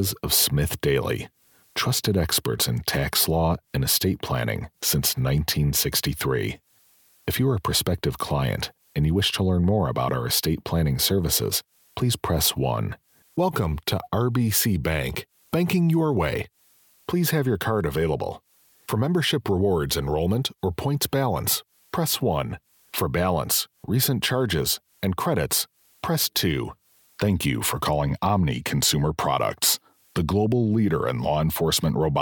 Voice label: Phone Hold IVR Male

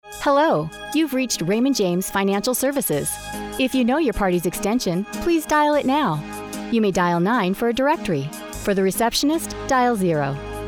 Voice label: Phone Hold IVR, Female

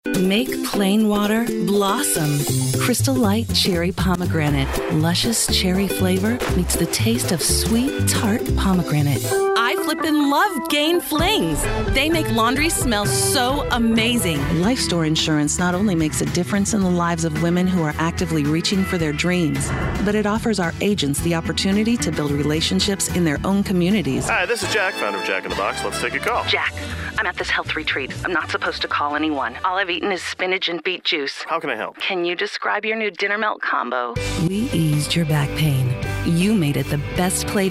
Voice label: Commercial Female

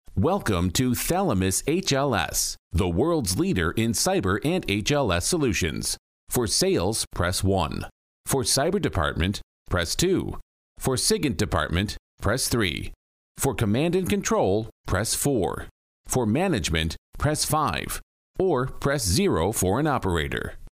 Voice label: Phone Hold IVR, Male

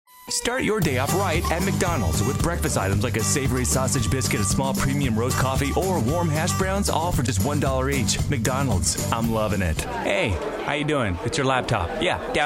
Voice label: Commercial Male

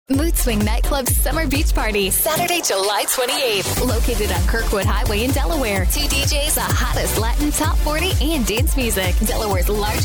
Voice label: Commercial Female

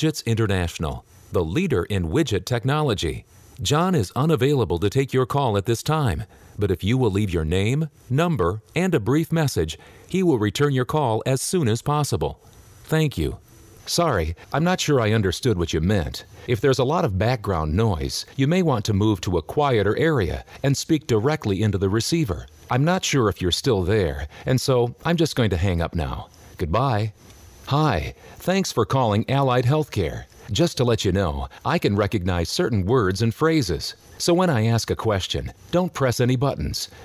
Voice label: Phone Hold IVR Male

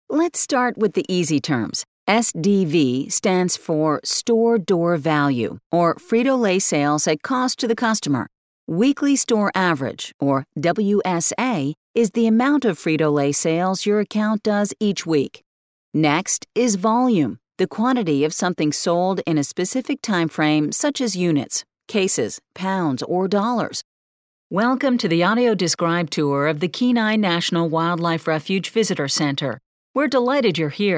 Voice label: eLearning Female

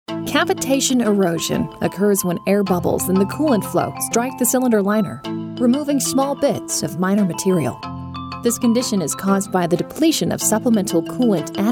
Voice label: eLearning Female

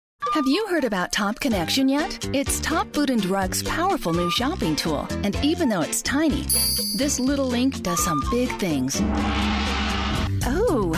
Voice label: Commercial Female

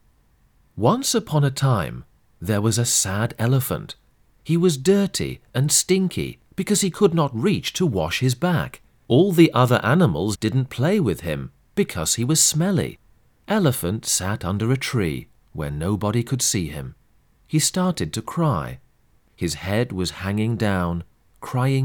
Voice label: Foreign Voices British Male eLearning

